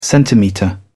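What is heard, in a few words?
"centimetre", British English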